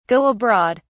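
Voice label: "go abroad", British English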